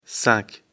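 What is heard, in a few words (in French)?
"cinq", French; afrikalan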